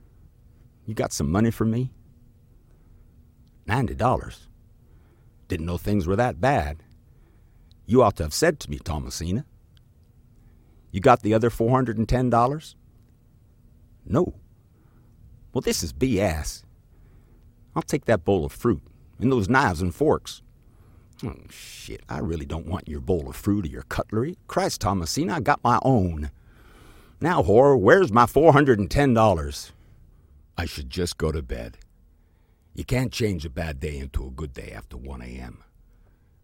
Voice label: male american multiple american accents character compilation